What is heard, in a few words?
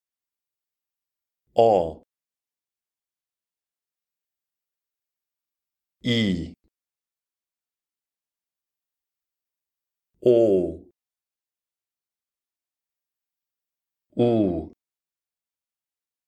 The 6 basic vowels